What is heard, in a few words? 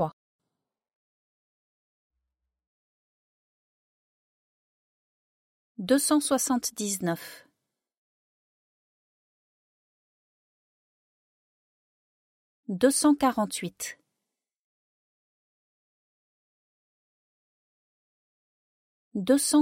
numbers drill faster